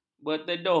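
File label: "berteduh", Malay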